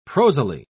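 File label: "prosily", British English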